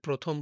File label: Male